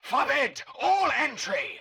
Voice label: Scientist Caster Chokepoint Line